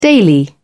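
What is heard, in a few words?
"daily", British English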